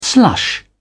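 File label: "slush", English, British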